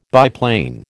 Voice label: "biplane", American